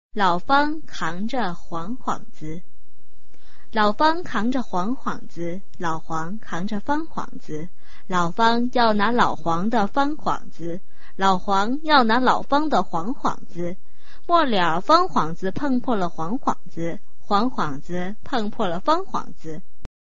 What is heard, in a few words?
chinese tongue twister